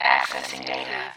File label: Robot voice, "accessing data"